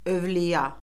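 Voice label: "övliya", Azerbaijani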